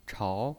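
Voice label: "chao", Mandarin Chinese, second tone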